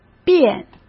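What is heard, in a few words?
"变", Mandarin Chinese; chinese-flashcards; hsk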